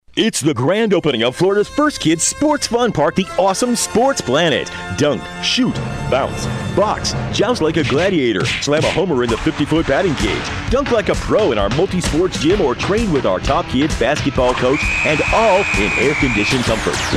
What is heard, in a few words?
Sports Planet VO